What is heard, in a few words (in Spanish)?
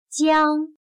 "江", Chino